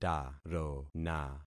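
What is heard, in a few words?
"tarona", concatenated syllables; Affixing experiment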